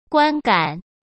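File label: "观感", Mandarin Chinese; chinese-flashcards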